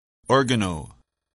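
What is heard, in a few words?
"organo-", English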